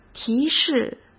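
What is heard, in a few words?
"提示", Mandarin Chinese; hsk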